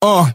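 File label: "uh", male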